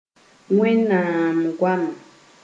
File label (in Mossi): "Wẽnnaam goama", Moore